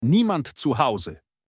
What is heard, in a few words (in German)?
Niemand zuhause Lock Chime; Tesla